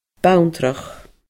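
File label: "banntrach", Scottish Gaelic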